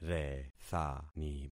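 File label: "rethani", concatenated syllables; Affixing experiment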